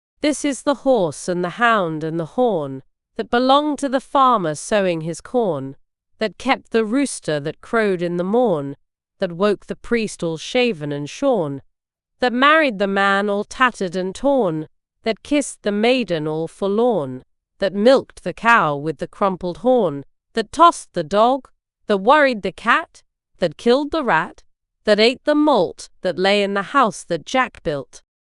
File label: fem brit; The House That Jack Built